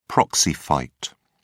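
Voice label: "proxy fight", British English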